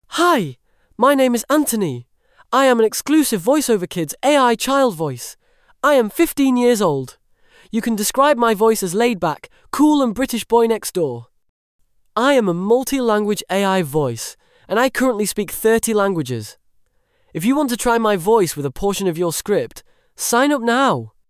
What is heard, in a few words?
boy